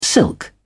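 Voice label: "silk", British English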